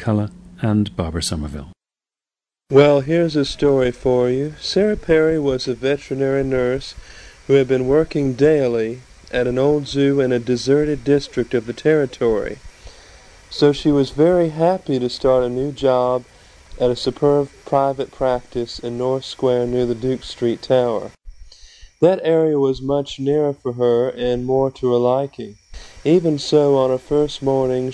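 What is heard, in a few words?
virginia, International Dialects of English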